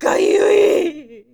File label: horror "kayui"